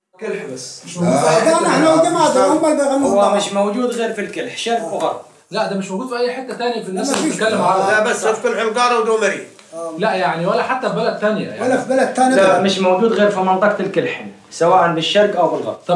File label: Narration; Lagham Description